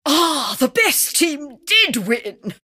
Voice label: admin summary cheer; compmode

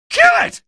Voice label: alert zombie, male; video games; npc; source engine; episodic; episode 1

